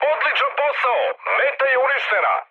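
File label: Serbian bomb success mood high, speech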